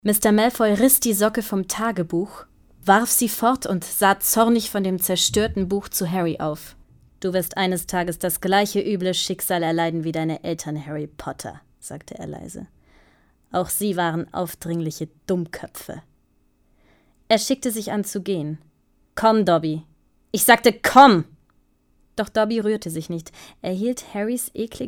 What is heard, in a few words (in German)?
Hoerbuch